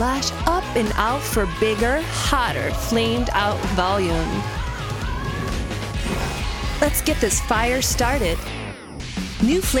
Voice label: Cover Girl VO, voice-over; Internet Session, Los Angeles